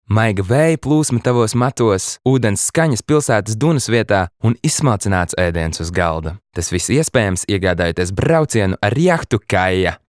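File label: Latvian; lv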